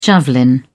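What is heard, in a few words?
"javelin", British English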